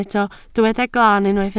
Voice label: "glaan", Welsh

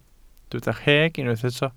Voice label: "rheg", Welsh

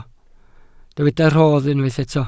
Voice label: "rhodd", welsh

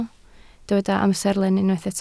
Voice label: "amserlen", Welsh

